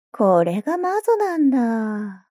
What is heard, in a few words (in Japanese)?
mob 女子 台詞